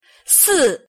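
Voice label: pronunciation "四", Chinese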